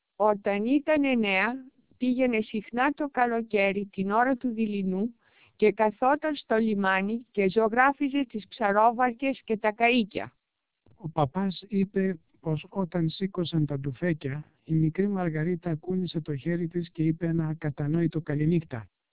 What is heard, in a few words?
Greek; TWELP DMR; vocoder